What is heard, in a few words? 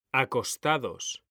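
"acostados", Spanish, male; mekanta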